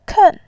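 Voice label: "ken4", Mandarin Chinese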